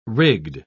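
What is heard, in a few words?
"rigged", British English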